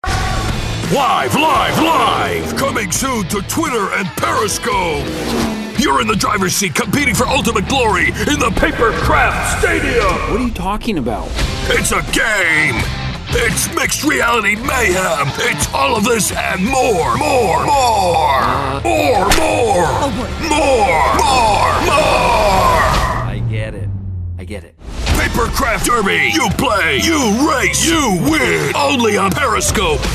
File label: Male Announcer Monster Truck